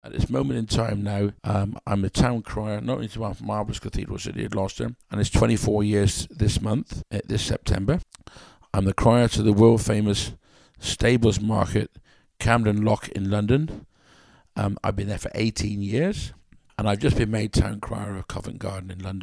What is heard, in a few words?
Town crying